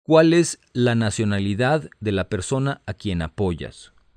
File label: Spanish, hotline